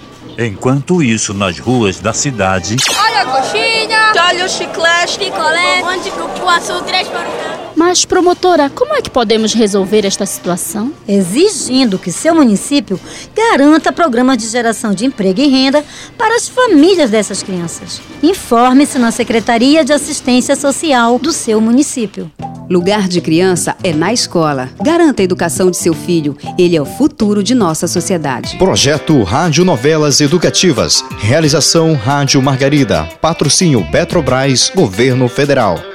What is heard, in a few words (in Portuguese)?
Spot ECA Trabalho Infantil